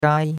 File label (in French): "gai1", chinois; Cours-de-Chinois